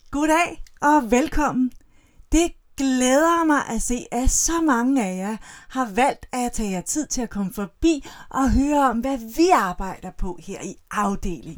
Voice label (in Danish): Meget entusiastisk